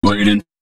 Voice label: male, Dance spoken hooks; Mutekki Media; Dance Ultra Voice VOL-1

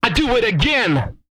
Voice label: again, Vocal, R&B Dance, hip-hop; TB HIP-HOP

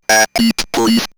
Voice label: Robot A Beat Please, robot vox; X-Static Goldmine

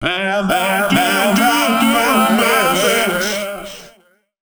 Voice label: male, voice

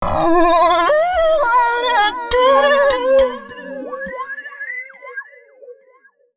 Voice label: female f105, adlips; Mutekki Media; Dance Ultra Voice VOL-1

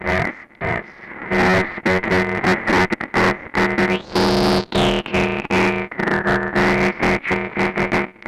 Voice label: EMS voice; SPEECH; Syntec; Wall of Sounds; TECHNO-BOX

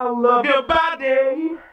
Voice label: Male FX Vocals; Houseworx

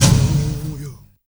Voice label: male Zulu, male noise; Heart of Africa; Spectrasonics; Heart of Africa 1

